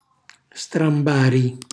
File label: "strambari", Calabrese; cucina calabrese